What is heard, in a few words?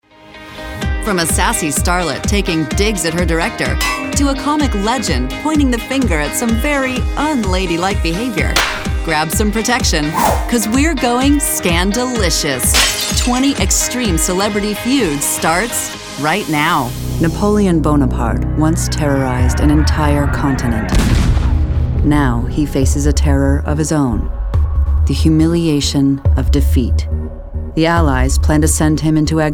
narration women